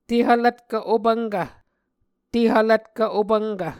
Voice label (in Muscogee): "Tehvlvtkv Opvnkv", Muscogee